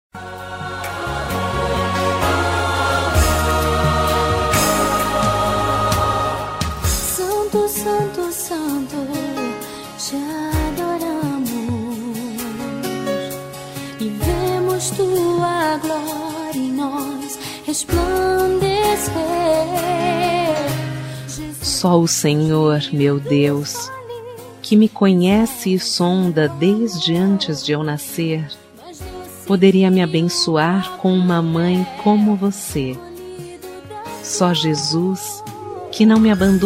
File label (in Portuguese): Mensagem Feliz Dia das Mães Gospel; Temas Evangelicos; Fem